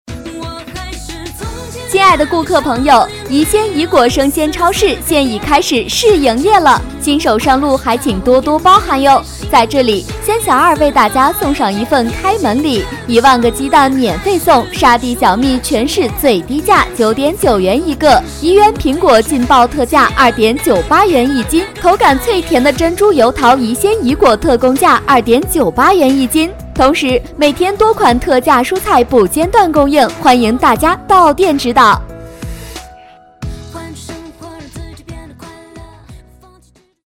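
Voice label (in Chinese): 女促销 水果超市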